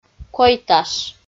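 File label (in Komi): "койташ", Komi, female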